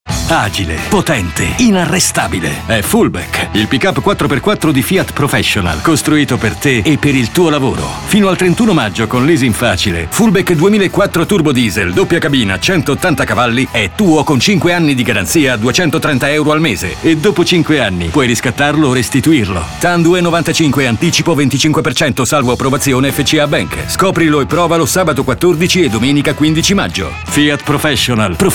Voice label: Radio warm gritty; Fiat Professional Fullback

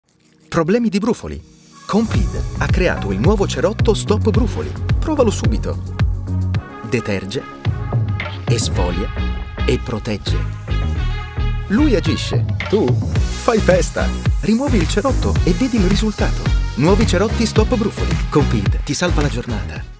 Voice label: spot; compeed soft

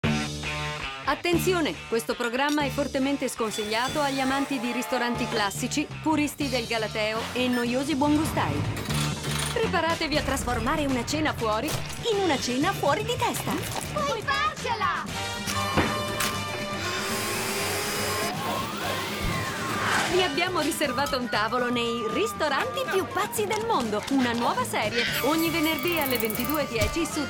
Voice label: TV Promo

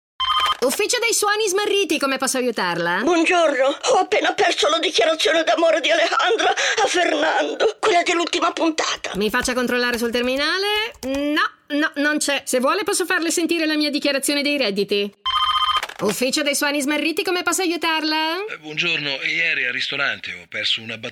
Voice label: call center

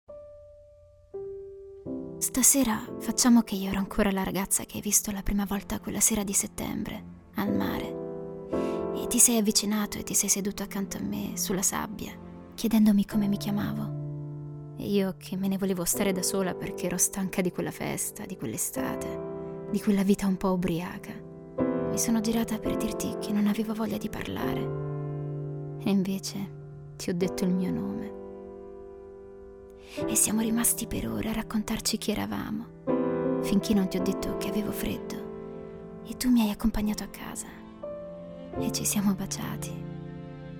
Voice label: Spiaggia Romantic Nostalgic Intimate

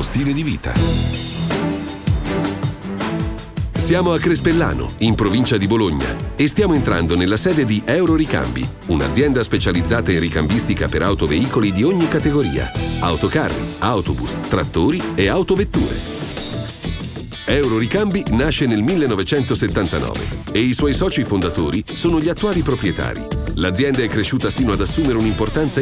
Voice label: corporate voice

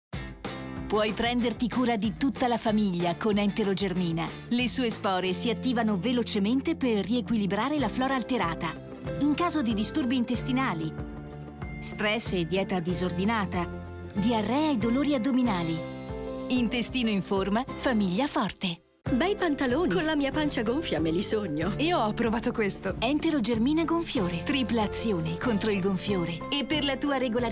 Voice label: spot tv; enterogermina

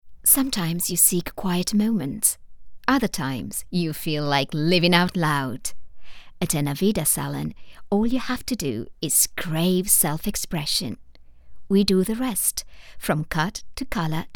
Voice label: ENGLISH; aveda

